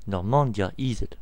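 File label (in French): "basse-normandie", voices, br, french region; france region; gcompris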